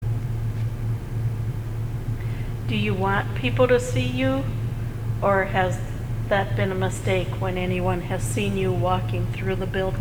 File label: EVP NO; Hoffman Hall